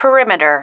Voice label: "perimeter", female